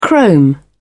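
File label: "chrome", British English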